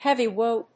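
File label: "heavy wood", English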